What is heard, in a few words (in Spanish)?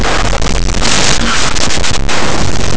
"hacha", africades; laboratori fonetica